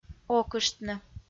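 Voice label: "окыштны", Komi, female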